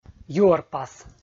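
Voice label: "юӧрпас", Komi, male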